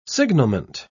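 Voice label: "signalment", English, British English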